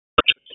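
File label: Police Downtown District Dispatch, p25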